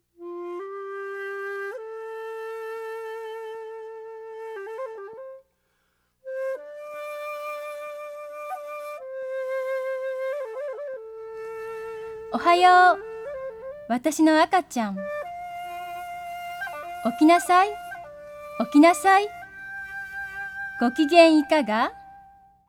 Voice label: Japanese